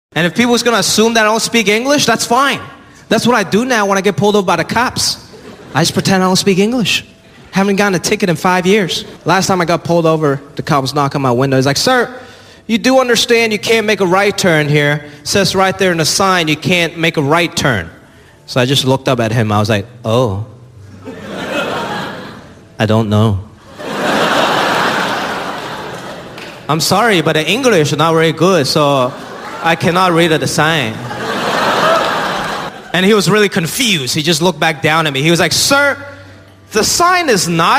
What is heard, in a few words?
comedy